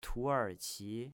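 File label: "Tuerqi", Chinese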